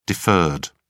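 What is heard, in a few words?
"deferred", British English, dictionary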